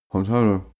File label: male talk sad